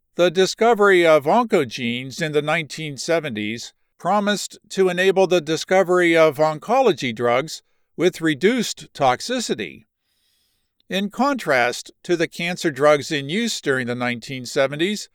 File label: science medical narration; Drug Discovery Imatinib